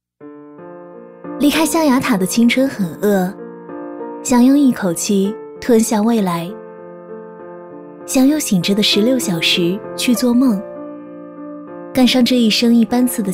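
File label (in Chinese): 女 旁白 青春励志 象牙塔, 普通话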